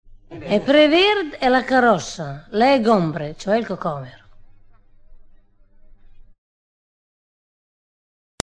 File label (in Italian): indovinello, romagna